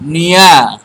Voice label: fire odia